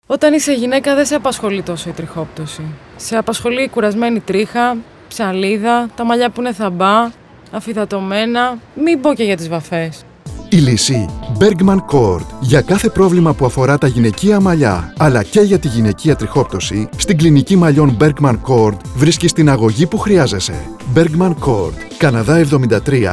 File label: radio spots gynaika; trixoptwsi metamosxefsi malliwn therapies